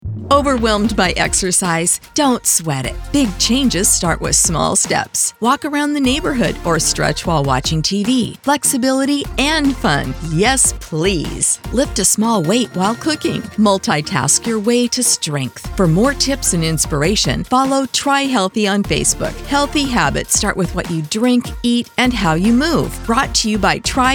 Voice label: Female; NCAandT; Big Changes